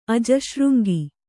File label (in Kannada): "ಅಜಶೃಂಗಿ", Kannada